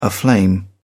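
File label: "aflame", British English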